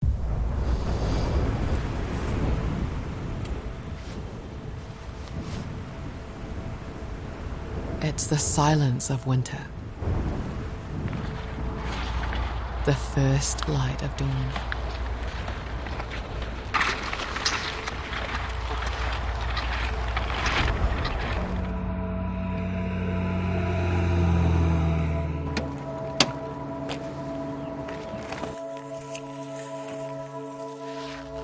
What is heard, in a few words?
english new zealand explainer videos; voice actor